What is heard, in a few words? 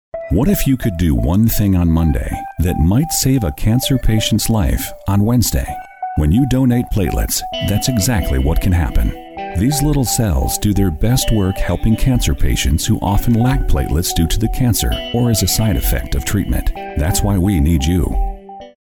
medical narration